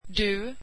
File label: "you" Swedish